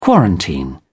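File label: "quarantine", English, British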